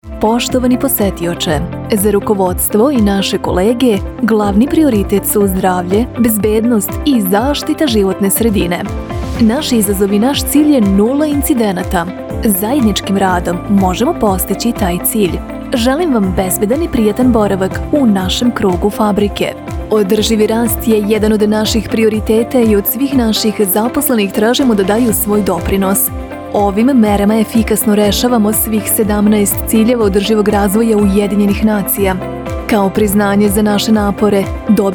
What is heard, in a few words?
E-Learning